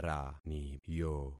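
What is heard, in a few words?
"raniyo", concatenated syllables; Affixing experiment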